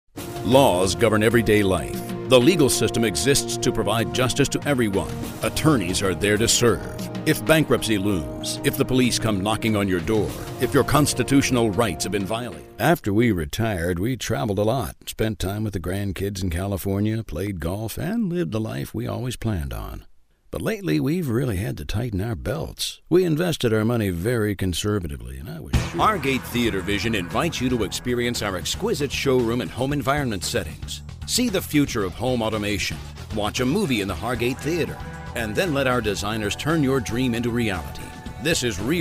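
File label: Commercial, voice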